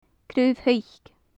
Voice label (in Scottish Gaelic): "craobh-shice", gaelic; sycamore; Faclan Nàdair